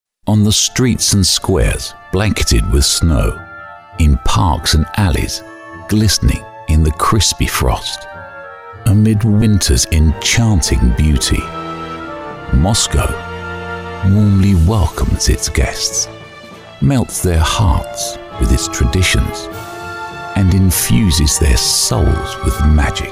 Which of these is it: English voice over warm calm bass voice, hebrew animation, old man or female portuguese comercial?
English voice over warm calm bass voice